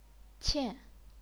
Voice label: "qian4", chinese pinyin